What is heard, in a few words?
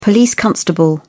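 "police constable", British English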